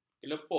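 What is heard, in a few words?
"kelepuk", Malay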